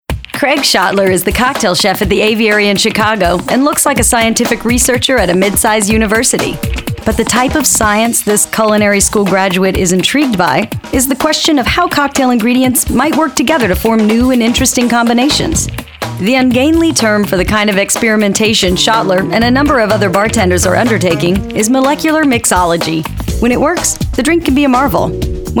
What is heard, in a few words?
Shy Narration